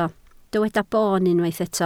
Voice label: "boon", Welsh

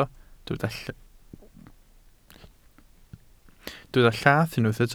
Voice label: "llath", Welsh

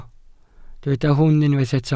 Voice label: "hwn" Welsh

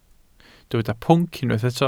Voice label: "pwnc", Welsh

